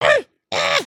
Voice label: volunteer, emotes